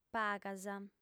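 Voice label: "pagas", female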